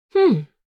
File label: Female vocalization moan so good